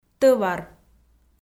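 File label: "тӧвар", Komi, female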